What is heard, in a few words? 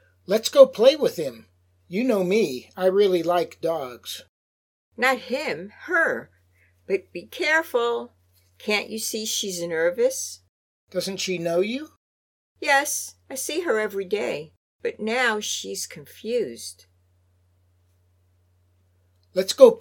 Natural Dialog; Lesson 3